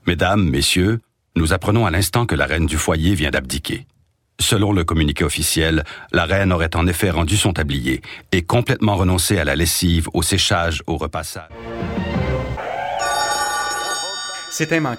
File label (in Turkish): Fransizca Erkek; seslendirme